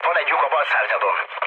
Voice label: left wing, mood low, speech hun; War Thunder